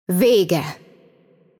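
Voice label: voice over, Hungarian; Game Outro; bloom minigame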